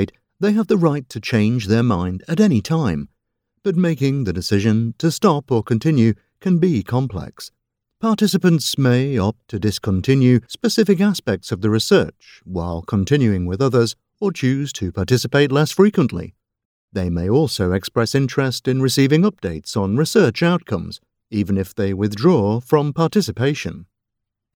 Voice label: voiceover medical clinical trials

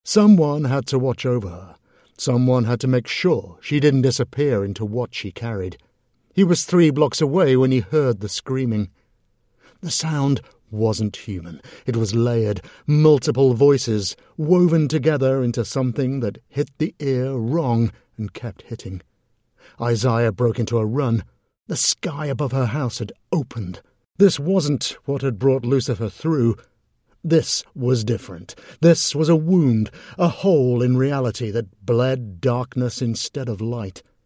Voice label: Horror, audiobooks; British

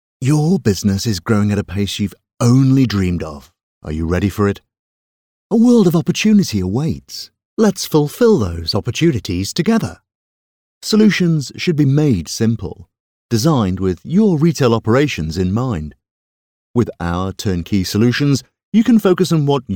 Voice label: voiceover commercial business growth

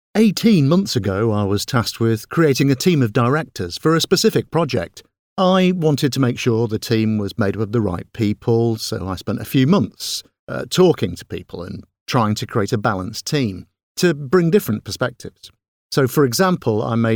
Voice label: voiceover narrative e-learning; hr manager story

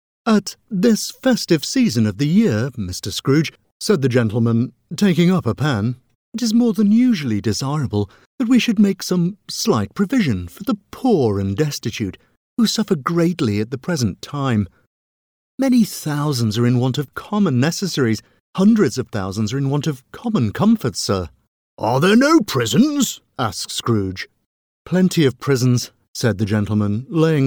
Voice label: voiceover narrative audiobook; audiobooks; dickens scrooge

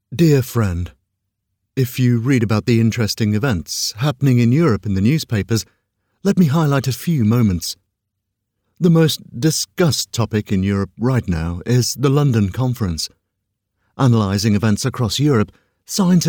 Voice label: voiceover audioguide character letter; audioguides

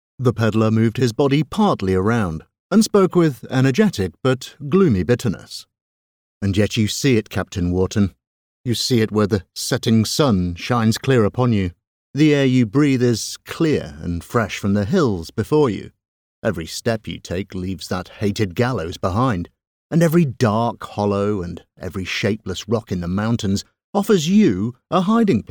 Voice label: voiceover narrative audiobook; audiobooks; j fenimore cooper the spy

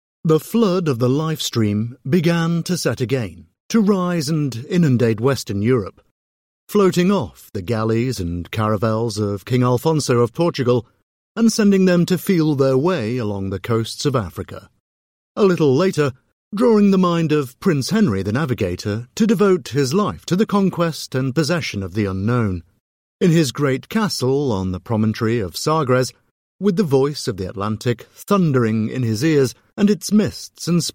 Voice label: Historical Non Fiction, audiobooks; British

